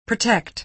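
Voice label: "protect", American English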